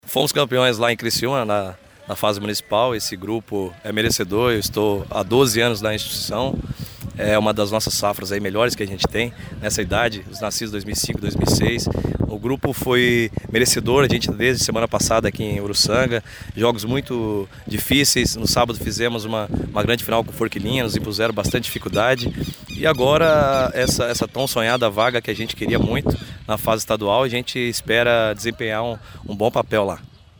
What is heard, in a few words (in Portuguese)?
MASCULINO; BAIRRO DA JUVENTUDE